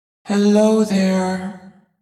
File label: Pissed; Li Li; Heroes of the Storm; warcraft